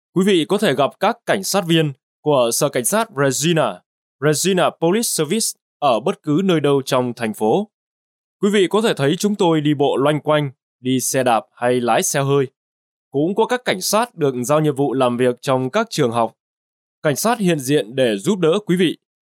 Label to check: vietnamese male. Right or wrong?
Right